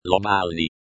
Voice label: lo balni, lojban